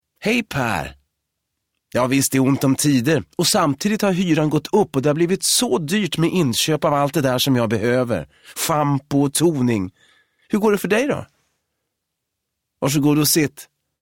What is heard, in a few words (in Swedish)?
svensk man